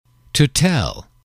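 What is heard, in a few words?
"to tell", English, male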